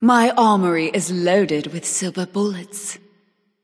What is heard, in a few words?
taunt directed; fenrir; Smite; artio